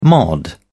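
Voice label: "mod", British English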